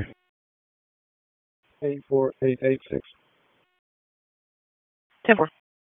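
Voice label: Police Dispatch; Raleigh Police Southeast District Disp; wake; p25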